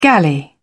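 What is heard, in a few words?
"galley", British English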